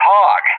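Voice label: "Hawg", English; JTAC; Callsign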